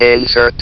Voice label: "INSERT", votrax; VIDEO GAMES

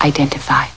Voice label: "identify", FEMALE SPOKEN WORD; MISC and SPOKEN WORD

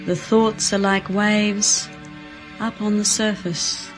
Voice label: thought are like waves, female spoken word; MISC and SPOKEN WORD

